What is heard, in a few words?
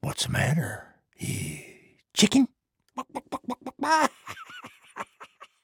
trader male neutral quest declined